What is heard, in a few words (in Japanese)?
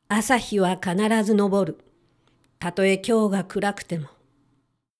女性; 女性 「朝日は、必ず昇る。たとえ今日が暗くても。」; ボイス